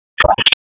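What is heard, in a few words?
Police Special Ops Tactical, p25; wake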